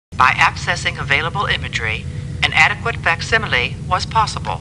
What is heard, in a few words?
Star Trek Computer Voice; StarTrekLCAR